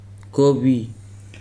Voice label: "rain", Weri

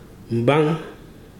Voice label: "side of face from temple to jaw", Ibibio

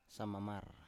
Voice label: "samamar", Biak

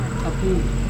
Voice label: "kapung", tail, Serewen

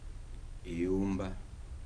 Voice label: "room", Kibembe

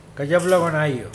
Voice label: "day before yesterday", Mokilese

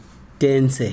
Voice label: "all", Chikunda

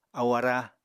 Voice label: "ahu ará", apatani

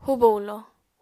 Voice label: "hubo-hulo", apatani

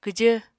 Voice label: "kwjv", Apatani

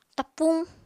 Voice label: "tapuñ", apatani

